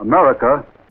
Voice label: america, announcer